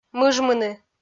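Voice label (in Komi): "мыжмыны", Komi, female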